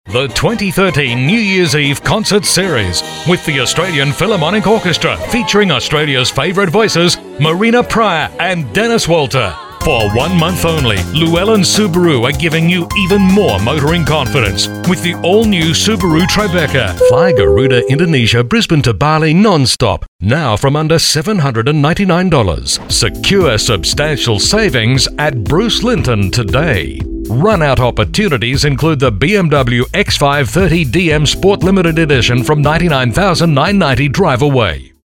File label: male talent retail